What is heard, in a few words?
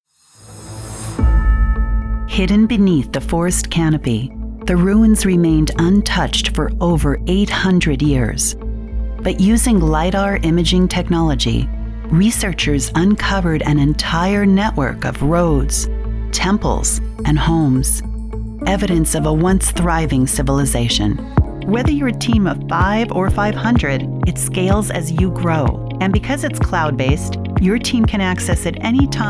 Narration; female voice actor